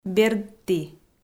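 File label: "бердті", Komi-Zyrian, female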